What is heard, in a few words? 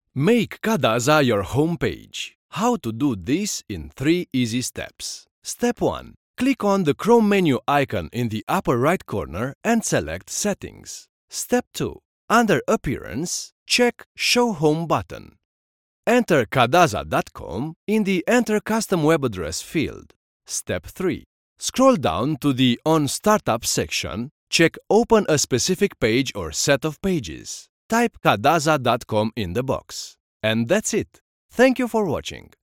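Romanian, accent English; GoLocalise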